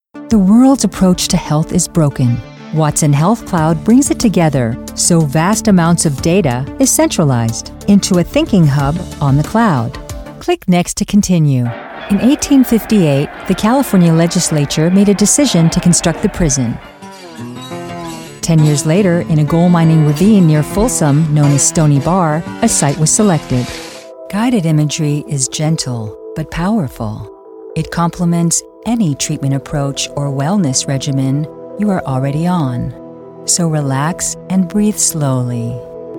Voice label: english american narration